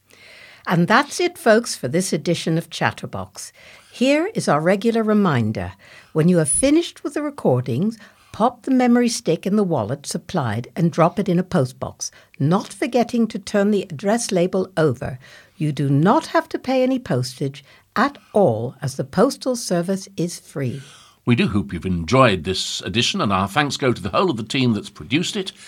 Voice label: Closing Announcement